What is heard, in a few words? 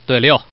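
Man, pair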